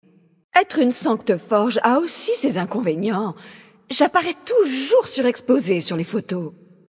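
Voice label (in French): Female Blague, voix françaises; Draenei Sancteforge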